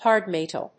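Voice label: "HARD METAL", English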